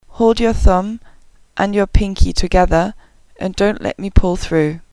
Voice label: thumb and pinky, english

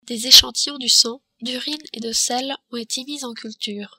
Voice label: "echantillons", french

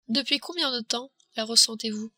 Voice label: "ressentez", French

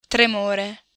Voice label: "tremore", Italian

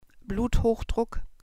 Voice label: "bluthochdruck", german

